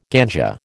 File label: "ganja", American